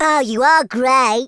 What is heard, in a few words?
"brilliant", Teenage Angst speech